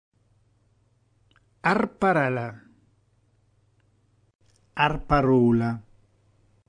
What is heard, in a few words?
"arparela" "arparola"; reggiane; dialetto